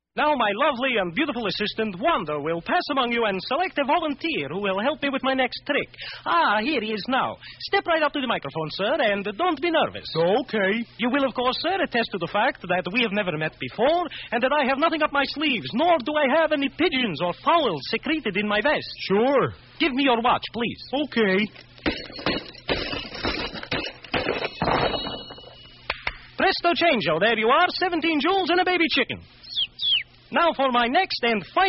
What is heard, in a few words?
lp promo, radio spots; psychedelic promos and radio spots